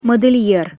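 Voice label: "model er", Russian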